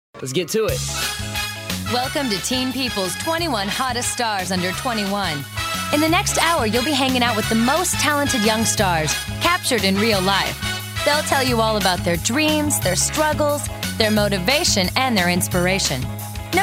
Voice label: narration

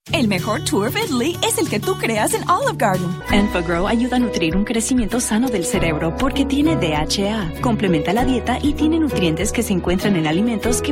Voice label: Spanish

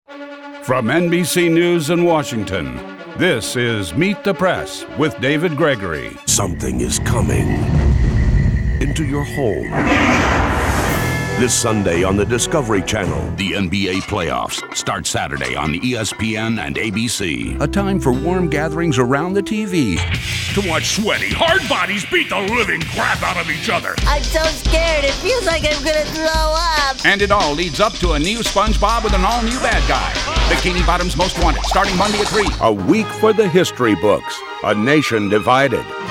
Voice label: promo

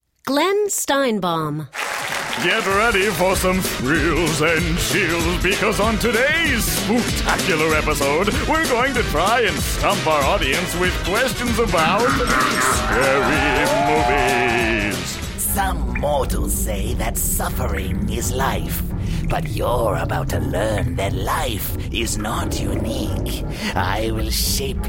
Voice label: animation

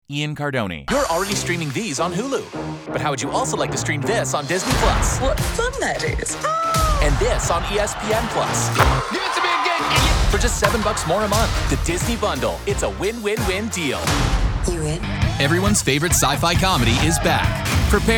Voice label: Promo

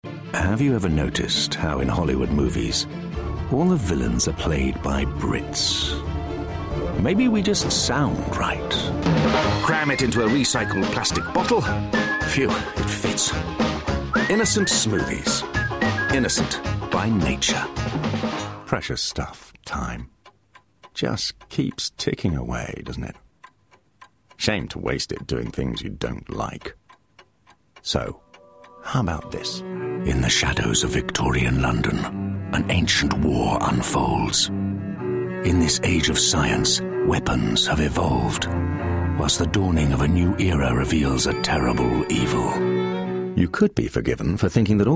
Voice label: commercial

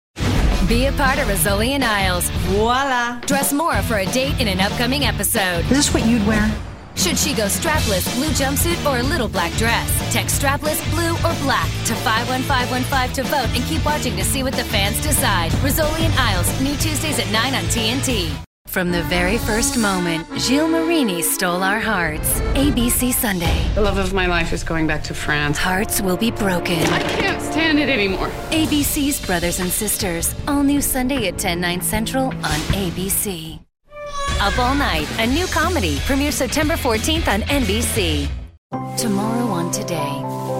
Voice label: promo

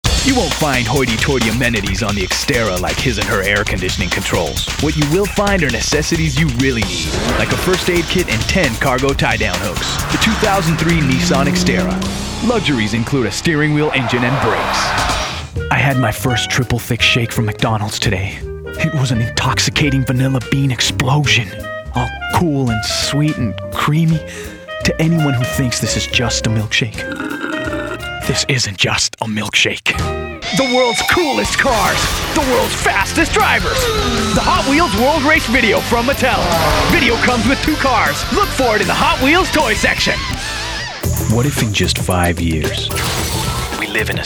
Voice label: commercial

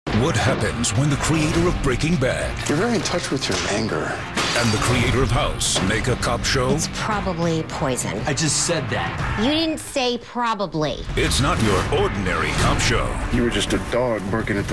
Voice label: Promos; battlecreek

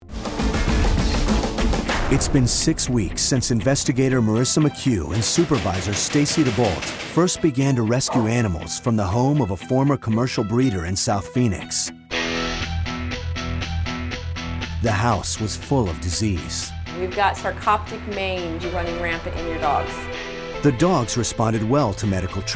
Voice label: narration

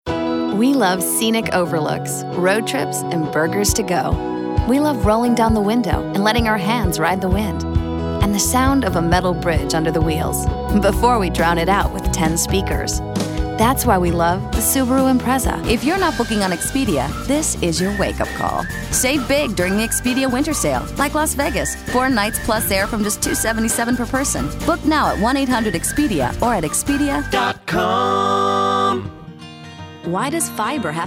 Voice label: Commercial

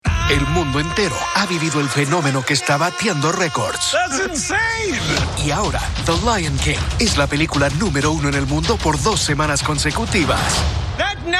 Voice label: Spanish Trailer

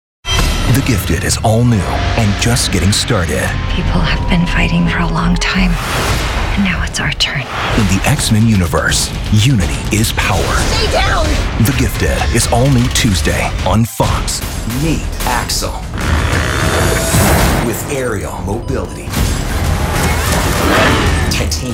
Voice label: Promo

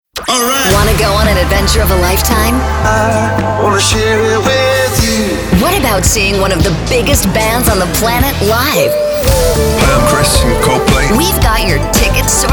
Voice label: Imaging

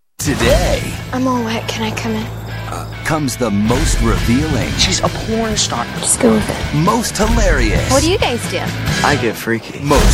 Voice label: girl next door

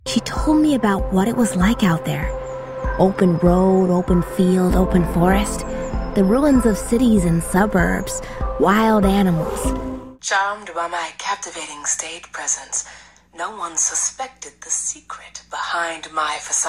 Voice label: Animation Demo

